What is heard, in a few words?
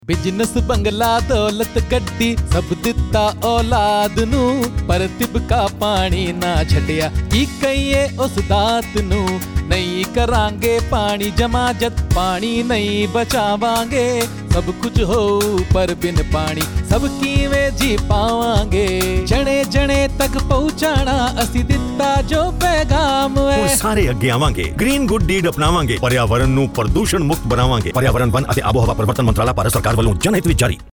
water conservation punjabi